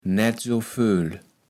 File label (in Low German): "naettsovööl", kaevels platt